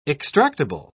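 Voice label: "extractable", British English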